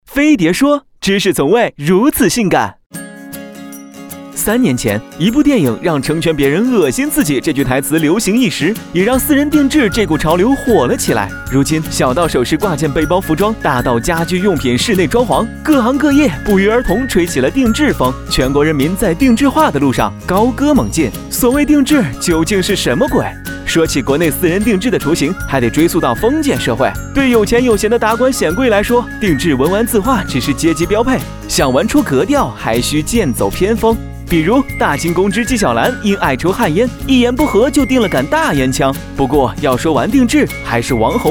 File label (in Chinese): 男; 飞碟说 定制的起源